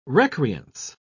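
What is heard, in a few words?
"recreance", British English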